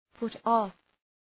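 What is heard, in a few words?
"put off", English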